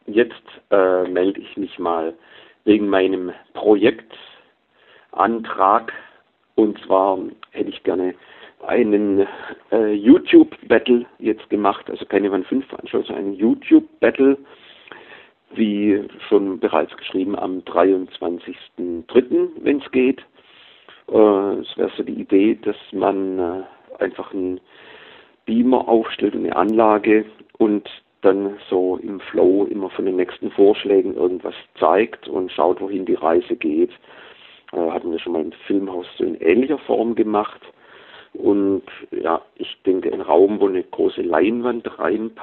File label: Voicemail